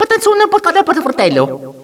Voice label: talking, Echo inside caves, underground; DS; ML BOWSERSINSIDE; Mario